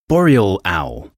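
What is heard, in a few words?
"boreal owl", English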